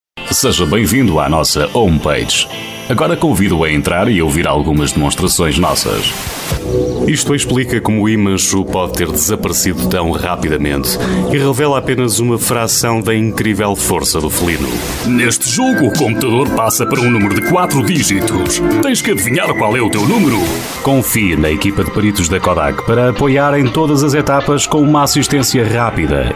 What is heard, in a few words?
Portuguese